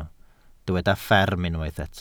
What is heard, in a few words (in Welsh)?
"fferm" Welsh